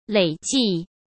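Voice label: "累计", Mandarin Chinese; chinese-flashcards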